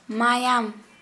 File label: Santali blood